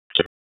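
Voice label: EMS Dispatch; Wake; p25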